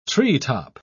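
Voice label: "treetop", British English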